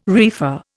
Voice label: "reefer", Indian

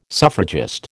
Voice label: "suffragist", American

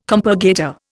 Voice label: "compurgator", Indian

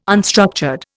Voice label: "unstructured", Indian

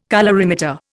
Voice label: "colorimeter", Indian

